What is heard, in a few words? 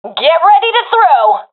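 request grenade, professional female; vo; Counter Strike Model Pack; CS:GO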